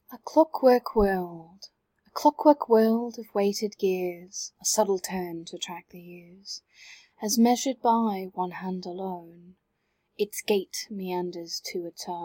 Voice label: poetry; A Clockwork World